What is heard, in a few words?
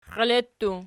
xielettu nom, archi